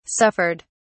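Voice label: "suffered", British English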